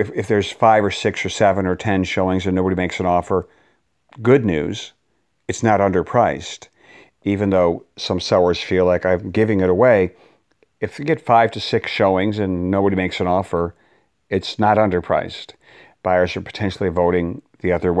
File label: harsh feedback